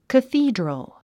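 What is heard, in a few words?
"cathedral", English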